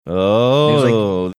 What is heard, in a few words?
drawn out ohhh